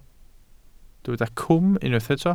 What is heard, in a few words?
"cwm", Welsh